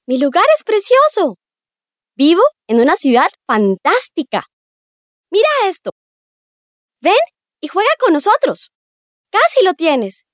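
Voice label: female children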